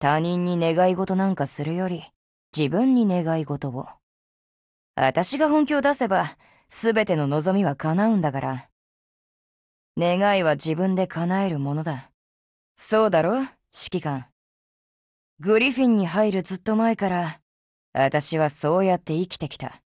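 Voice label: tanabata, Japanese; 人物：少女前线:柯尔特沃克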